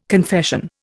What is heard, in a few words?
"confession", Indian